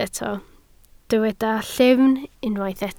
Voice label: "llyfn", Welsh